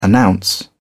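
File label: "announce", British English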